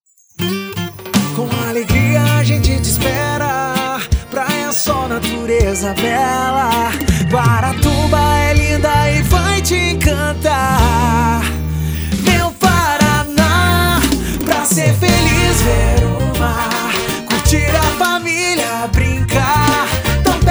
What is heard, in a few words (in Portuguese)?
jingle; GUARATUBA; casa da comunicacao